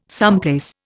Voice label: "someplace", Indian